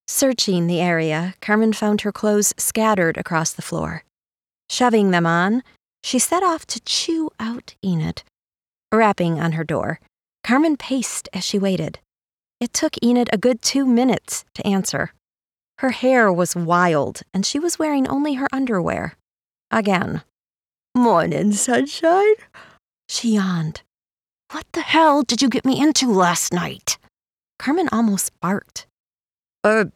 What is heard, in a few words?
Humor, audiobook narrator